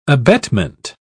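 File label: "abetment", British English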